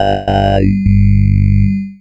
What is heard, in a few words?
FORMANT, Funky Vocals; USB Soundscan vol.18; AKAI; COMPUTER